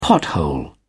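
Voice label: "pothole", British English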